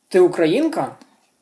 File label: dialogue, Ukrainian